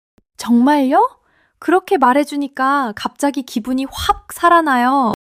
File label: excitement; monologue